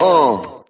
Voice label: "uh" group of men